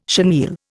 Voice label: "chenille", Indian

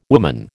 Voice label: "woman", American

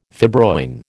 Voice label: "fibroin", American

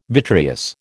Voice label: "vitreous", American

